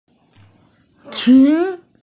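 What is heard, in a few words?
"qun2", Mandarin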